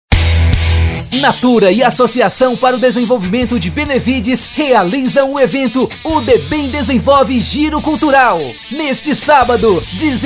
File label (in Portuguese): voz locutor; carro de som